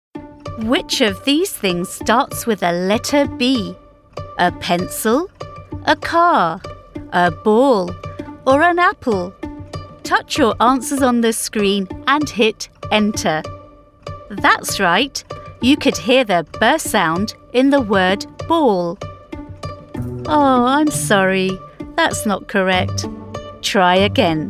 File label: eLearning young kids script spelling